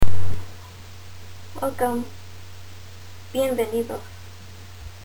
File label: Welcome Spanish